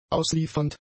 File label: "ausliefernd", German; adjectives